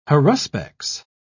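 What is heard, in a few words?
"haruspex", British English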